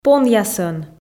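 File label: "понъясӧн" Komi, female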